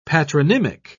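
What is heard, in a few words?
"patronymic", British English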